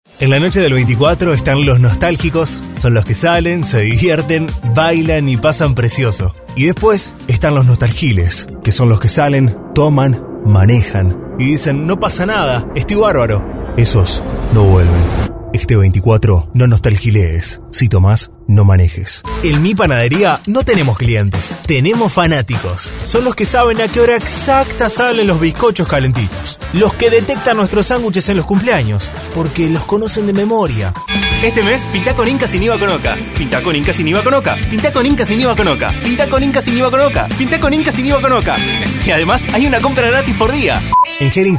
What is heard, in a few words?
spanish argentinian commercial; Uruguay; Spanisch (Lateinamerika) Spanisch (Argentinisch)